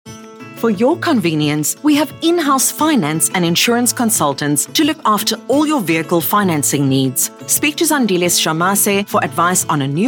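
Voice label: Voice Overs, South Africa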